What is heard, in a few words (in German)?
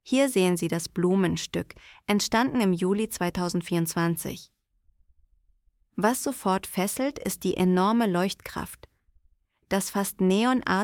voice audio guide; blumenstueck